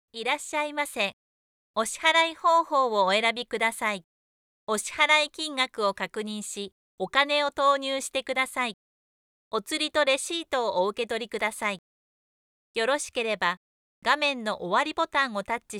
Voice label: Voice Assistant